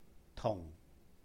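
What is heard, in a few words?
"tùhng", Cantonese; and; with; 同; tung4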